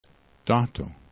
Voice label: "dato", esperanto